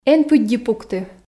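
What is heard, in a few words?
эн пыдди пукты, Komi, female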